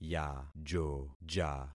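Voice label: "yajoja", concatenated syllables; Affixing experiment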